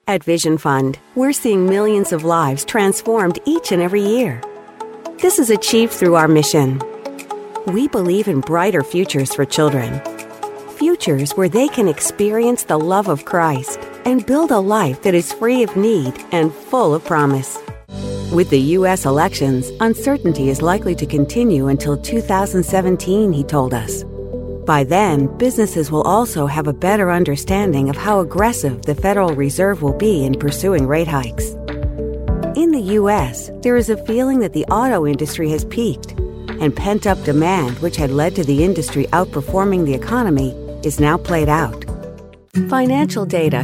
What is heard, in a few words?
american corporate